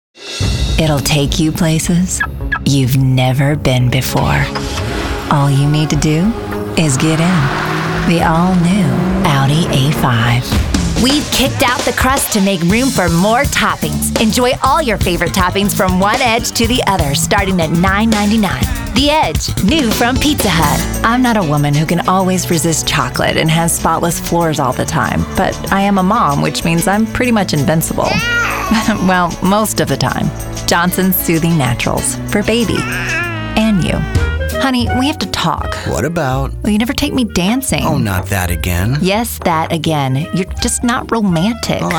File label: voiceover